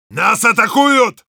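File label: Heavy incoming, russian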